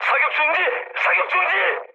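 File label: Korean player friendly fire mood med; speech; War Thunder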